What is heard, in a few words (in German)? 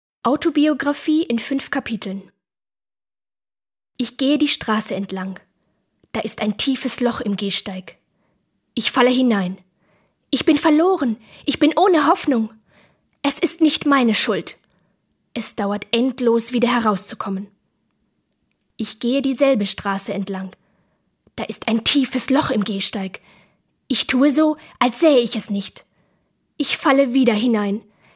Erzaehlung; sprecher